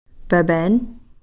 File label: "pepen", Cow Creek